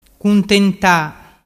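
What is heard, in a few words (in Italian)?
"cuntenta", Vernazzese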